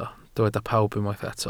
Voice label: "pawb", Welsh